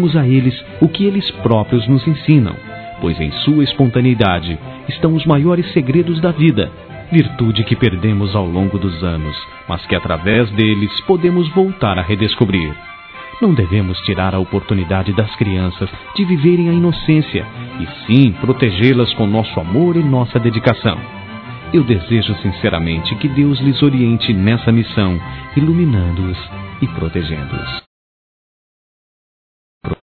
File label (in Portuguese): Parabéns aos Pais Masculino; Telemensagens; Felicitações; Telemensagens 2017